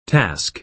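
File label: "task", American English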